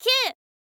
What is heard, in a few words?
"kyuu", girl; voice